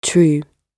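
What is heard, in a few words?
"true", British English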